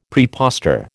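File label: "prepostor", American English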